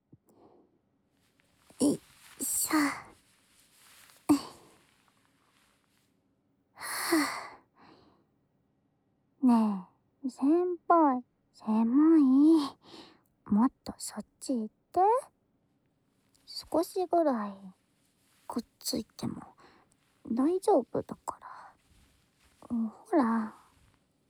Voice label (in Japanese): 添い寝